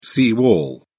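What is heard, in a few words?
"sea wall", English, British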